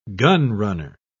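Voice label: "gunrunner", British English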